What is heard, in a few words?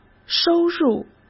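"收入", Mandarin Chinese; chinese flashcards; hsk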